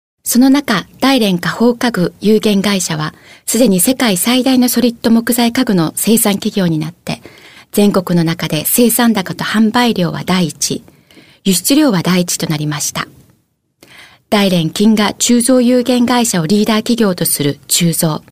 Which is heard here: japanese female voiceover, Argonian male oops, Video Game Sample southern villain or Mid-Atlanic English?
japanese female voiceover